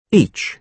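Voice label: "each", British English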